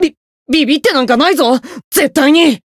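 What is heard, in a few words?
Voice encounter fear, Japanese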